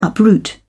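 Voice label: "uproot", British English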